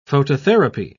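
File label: "phototherapy", British English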